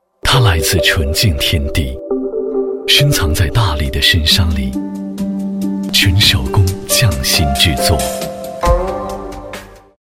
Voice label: 广告 食品饮料 亲切; 农夫广告

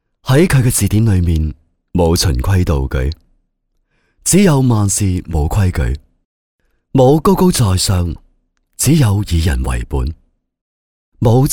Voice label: 男粤 栏目 电台; 粤语; 电台hay

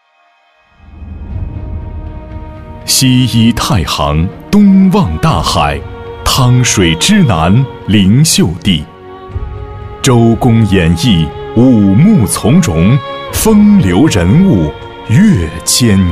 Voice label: 宣传片 城市 浑厚, 男国; 汤阴

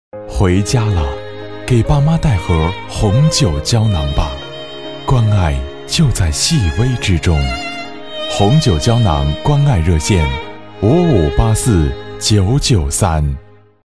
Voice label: 广告, 医院医药, 温情; 红酒胶囊

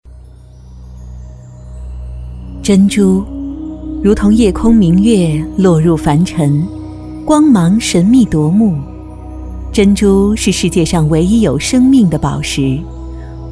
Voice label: 纪录片 自然科教 珍珠之美 稳重 自然

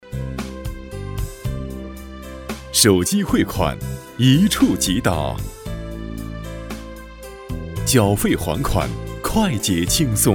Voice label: 男国 银行 手机APP 轻松

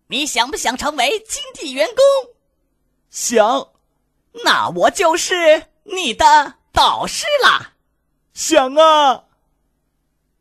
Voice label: 动画 模仿 动画人物, 男; 功夫熊猫2个动画人物

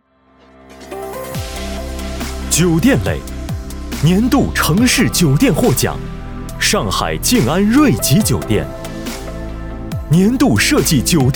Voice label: 男国 年度颁奖 年轻

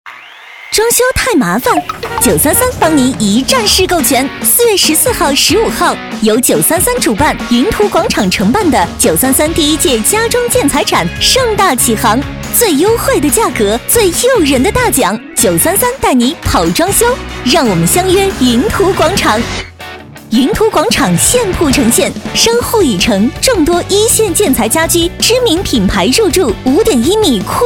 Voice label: 广告 装修 活力; 家居家电

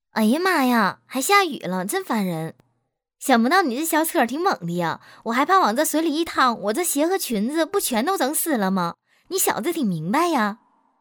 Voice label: 东北话女生电影配音; 动画 角色

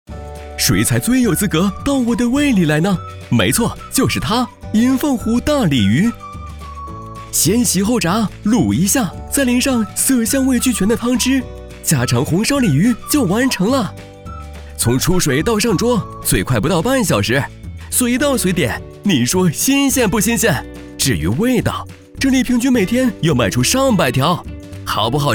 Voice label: 广告 食品饮料 预告年轻美食 年轻, 男

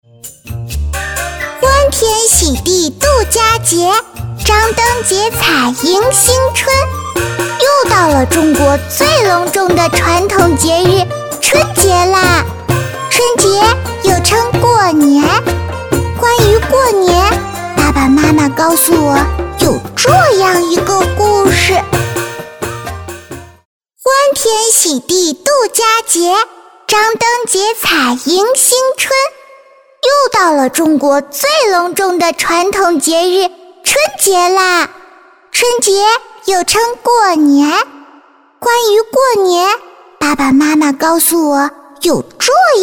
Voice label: 广告 节日 长草颜团子女童广告 可爱

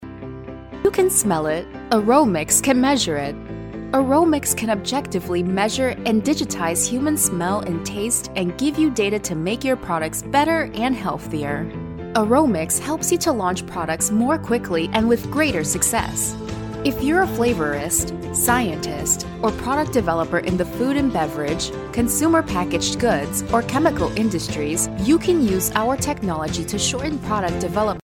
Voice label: 外籍女英 外语 美式英语 科技感 科技

